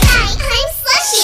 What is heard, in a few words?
Echo